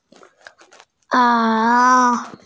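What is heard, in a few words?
"ao3", Mandarin Chinese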